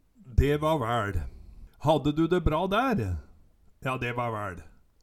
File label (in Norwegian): "de va vaeL", Numedalsmål; de va væL; Eksempel på bruk Hadde du de bra dær ? Ja de va væL